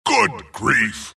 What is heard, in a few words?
wraith death; dota; skeleton king